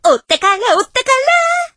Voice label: voice, dubbing